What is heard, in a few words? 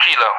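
"Kilo", English; Alphabet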